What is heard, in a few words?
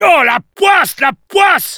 negative vocalization, fr